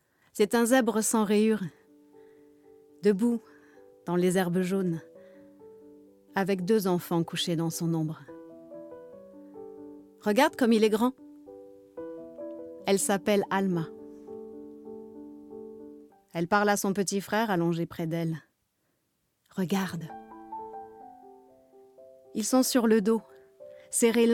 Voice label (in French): Livre Audio Adultes